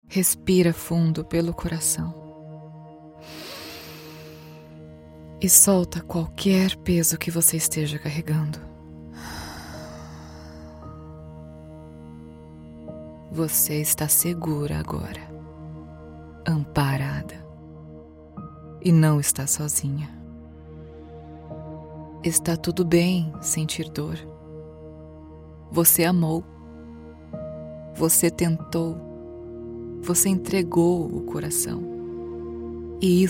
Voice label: Meditation Broken Heart Motherly Compassionate Brazilian Portuguese Voice; Actress